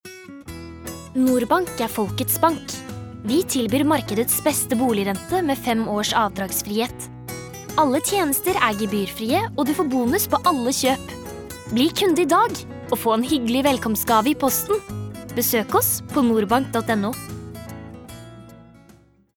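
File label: Norwegian commercial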